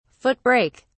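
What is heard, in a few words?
"foot brake", British English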